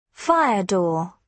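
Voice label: "fire door", British English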